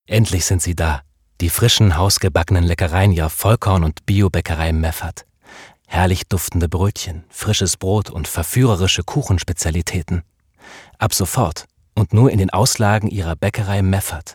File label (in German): werbung